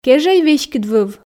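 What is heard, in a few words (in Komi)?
кежӧй веськыдвыв, Komi, female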